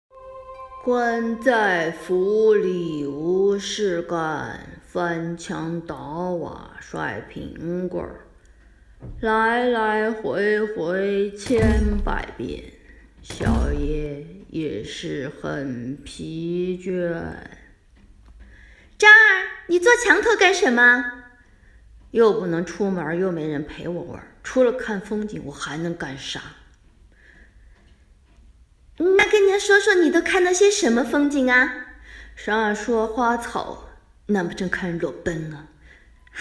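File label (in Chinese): 动画配音一人分饰两角; 哪吒与娘踢毽子新版哪吒片段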